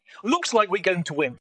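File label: VoiceActing es, Male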